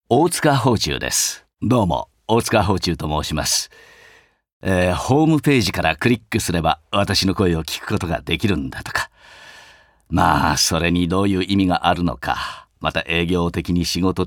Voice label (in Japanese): フリートーク; 大塚芳忠